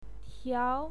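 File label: "tiao2", Mandarin Chinese pinyin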